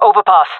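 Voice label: "overpass", female voice; player vo jungle fem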